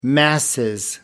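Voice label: "masses", British English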